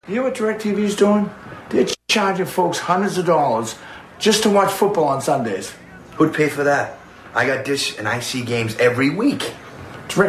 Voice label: COMMERCIAL